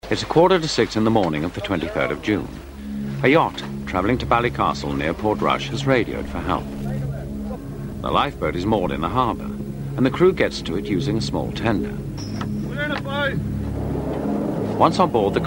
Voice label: Docu Narration Lifeboat